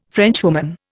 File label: "Frenchwoman", Indian